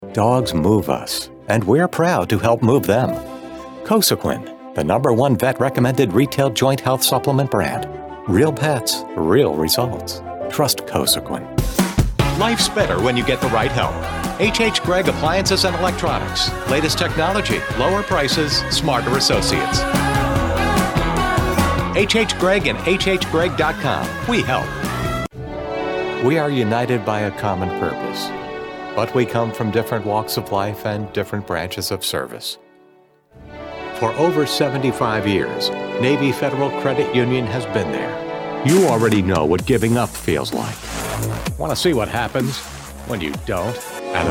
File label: Commercial Male; commercial voiceover